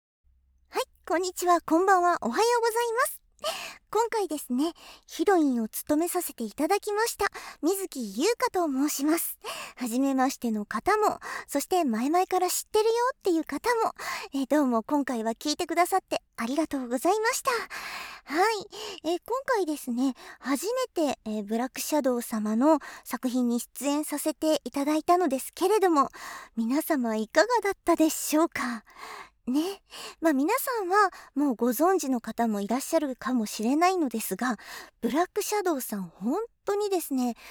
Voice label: フリートーク

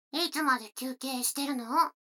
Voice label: 女の子 台詞

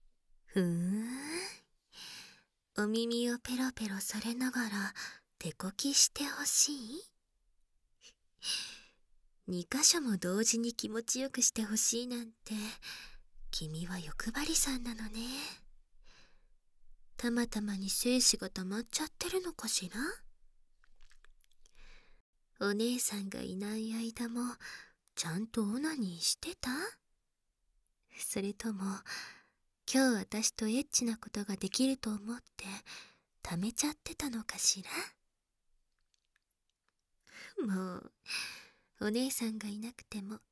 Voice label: 耳なめしながらおててでしこしこ, ASMR

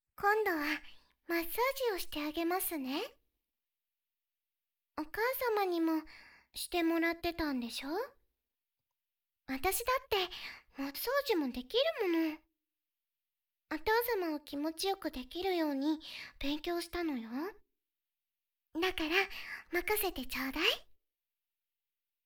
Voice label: 全身マッサージ 右耳寄り; 叛乱!？実の娘ぷちメイドのクールじゃない略奪愛耳かき!!; pure voice

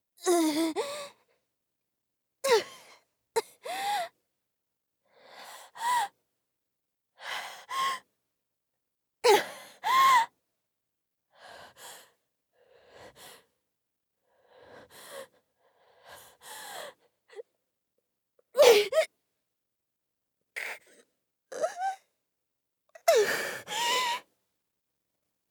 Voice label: 這いずらせてこっちおいで; 効果音なし

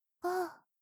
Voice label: 通常カウント, ASMR

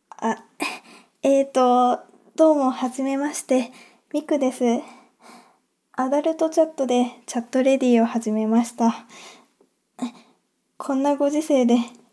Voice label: 自己紹介, ASMR

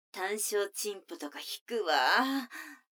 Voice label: 女の子台詞, ASMR

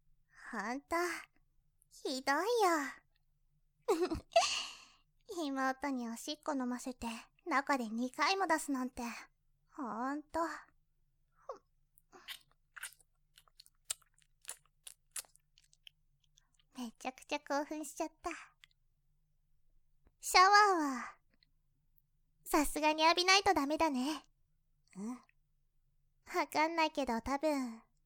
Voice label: 一緒にお風呂, ASMR

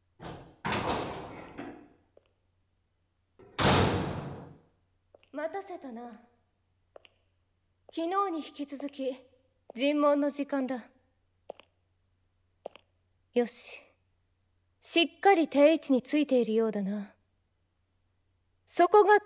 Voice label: ローション手コキ責め, ASMR; 2日目・昼

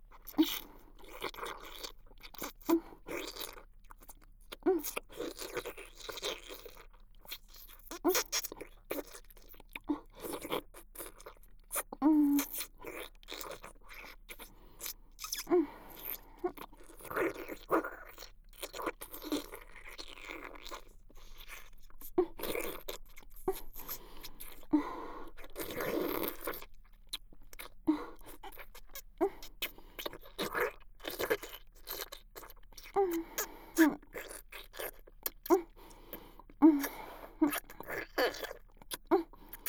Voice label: 鈴音(左右), ASMR; フェラ

